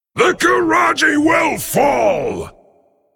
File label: Male Drakonid Attack